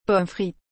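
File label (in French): "pommes frites", French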